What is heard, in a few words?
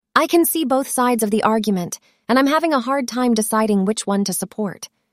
synthesized audio